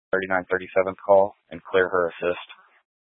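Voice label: Incident Tac 3, Scanner audio recording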